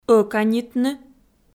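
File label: "ӧканитны", Komi, female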